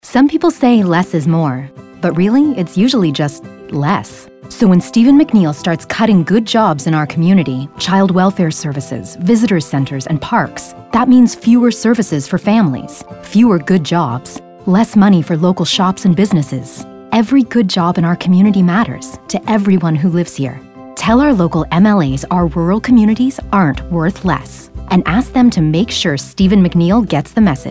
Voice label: radio spot; nsgeu